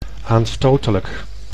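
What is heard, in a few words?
"aanstotelijk", Dutch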